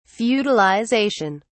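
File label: "feudalization", English, British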